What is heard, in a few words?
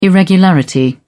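"irregularity", British English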